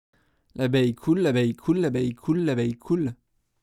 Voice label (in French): voix abeille; akg 214